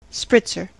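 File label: "spritzer", US English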